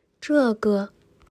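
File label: "这个", Chinese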